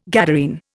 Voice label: "Gadarene", Indian